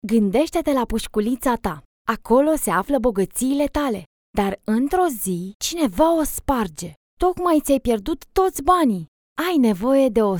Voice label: romanian automotive